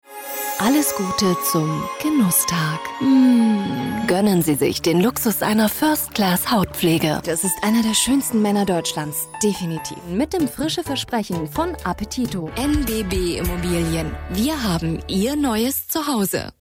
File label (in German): werbung